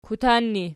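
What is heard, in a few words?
"kutan", ergative, Archi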